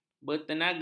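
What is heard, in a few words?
"bertenaga", Malay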